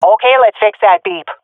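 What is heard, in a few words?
ten seconds remaining, female; Counter Strike Model Pack; CS:GO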